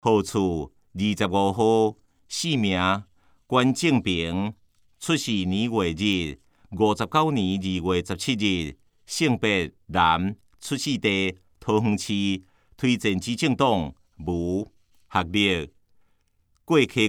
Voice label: 台語, 有聲公報; 市議員

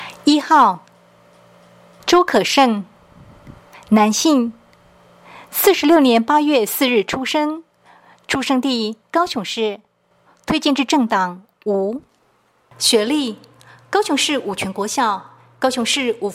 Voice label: 國語; 市長

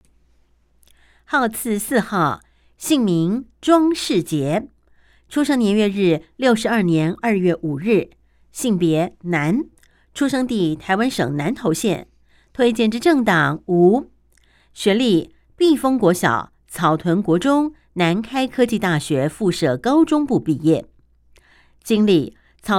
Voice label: 國語; 南投縣; 有聲公報; 縣議員

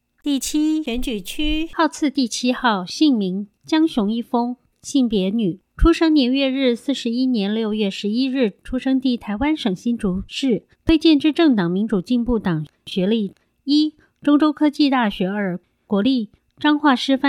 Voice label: 國語; 彰化縣; 有聲公報; 縣議員; 第七選舉區

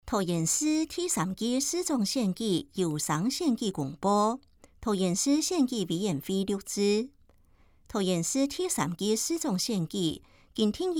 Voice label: 前言, 客語; 桃園市第3屆市長選舉; 有聲公報

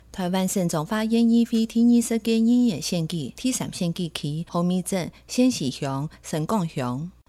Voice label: 前言 客語; 彰化縣; 有聲公報; 縣議員; 第三選舉區

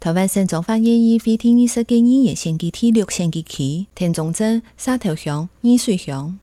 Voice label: 前言 客語; 彰化縣; 有聲公報; 縣議員; 第六選舉區

